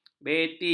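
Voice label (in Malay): "beti", Malay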